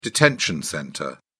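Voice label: "detention centre", British English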